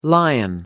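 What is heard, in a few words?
"lion", English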